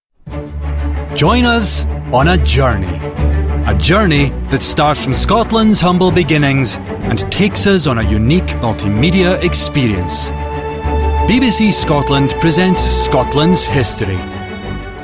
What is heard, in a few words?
male voice, showreel